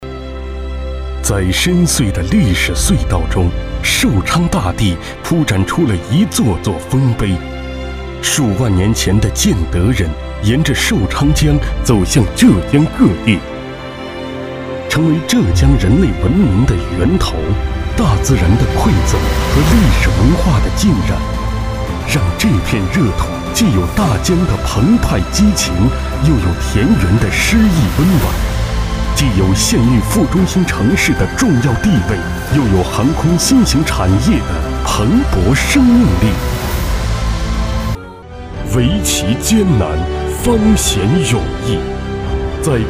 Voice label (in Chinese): 男 宣传片 人文宣传片; 寿昌人文宣传片